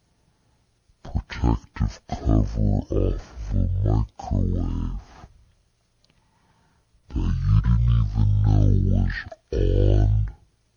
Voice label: saying somebody describing through asmr experience of pulling asmr like half speed